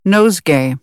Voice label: "nosegay", American English; oʊ - əʊ